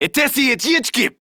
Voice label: Player Spotted Corpus Male; en; Battle Barks